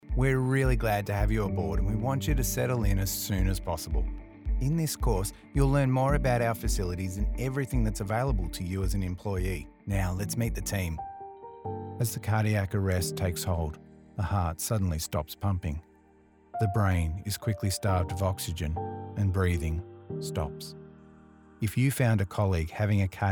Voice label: eLearning

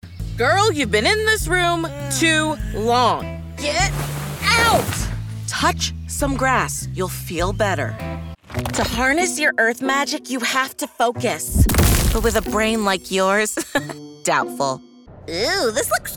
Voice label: Animation

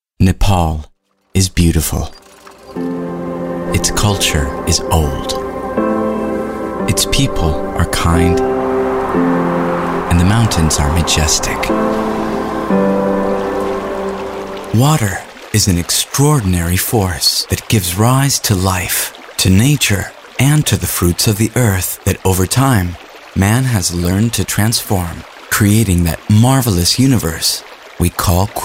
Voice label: Corporate

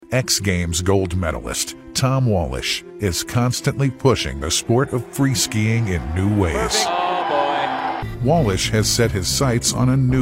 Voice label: Narration and Documentary

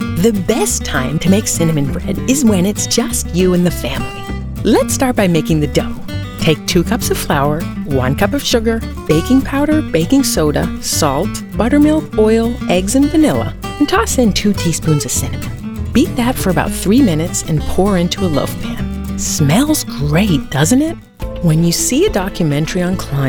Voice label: Narration

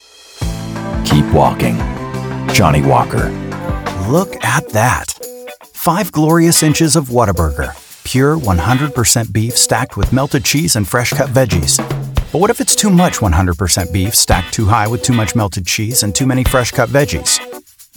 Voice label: Commercial Demo

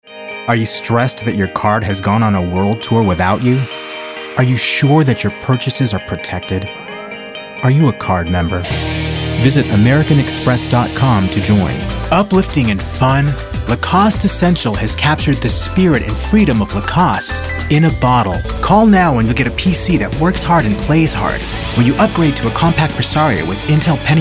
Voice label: Commercial

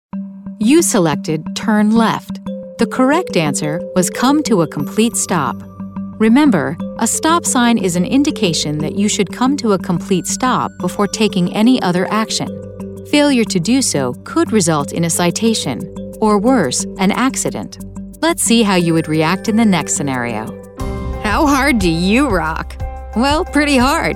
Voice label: ELearning

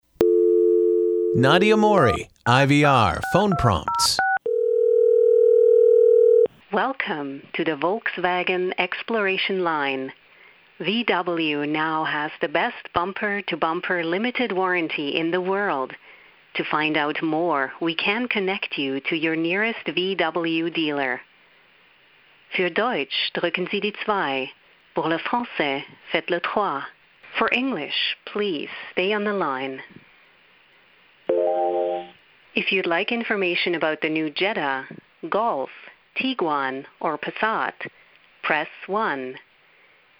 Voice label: IVR

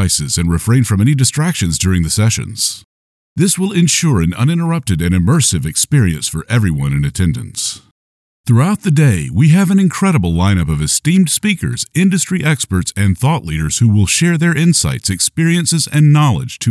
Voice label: Leadership Summit Conference VOG; Leadership Summit Conference VOG demo

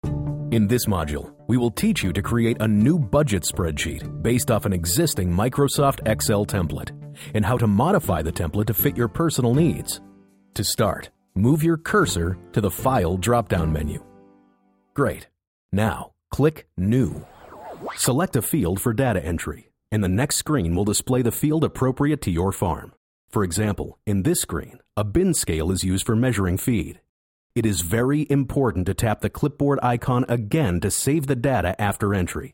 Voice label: Explainer

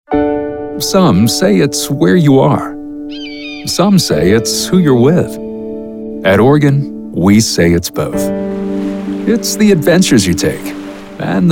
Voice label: Commercial

